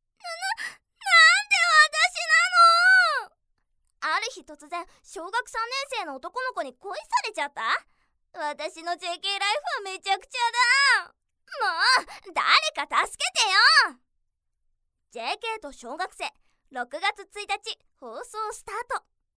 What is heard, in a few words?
narration